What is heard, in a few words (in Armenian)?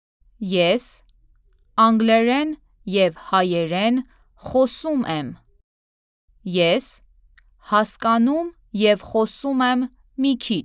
Armenia Female